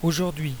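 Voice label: "today", French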